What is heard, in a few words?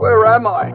where am i cartoon, Voice questions